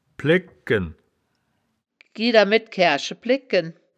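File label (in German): "plegken", wittlicher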